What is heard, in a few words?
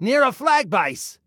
Warn Enemy Near Our Flag, Male; Male 02